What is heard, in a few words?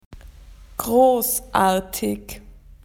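"large", Australian English; PictureDictionary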